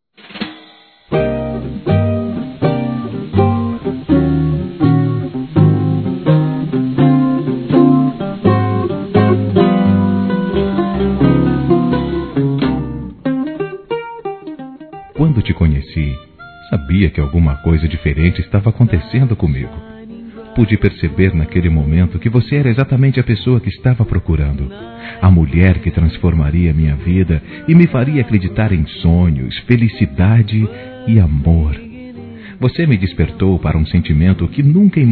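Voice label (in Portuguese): ROMANTICA, Telemensagens, Românticas masculino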